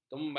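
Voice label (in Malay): "kemban", Malay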